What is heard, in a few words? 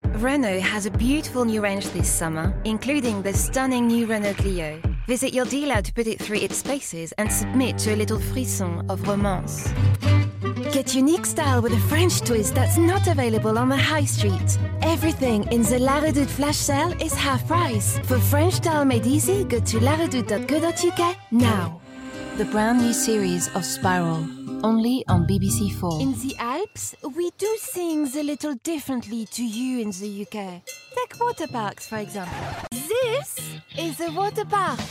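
Commercial English accented; GoLocalise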